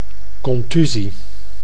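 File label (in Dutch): "contusie", Dutch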